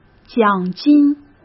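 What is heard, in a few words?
"奖金", Mandarin Chinese; hsk; chinese-flashcards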